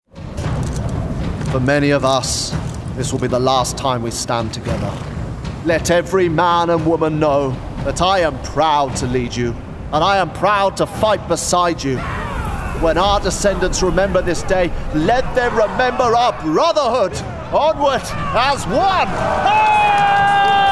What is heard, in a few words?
Gaming Warrior RP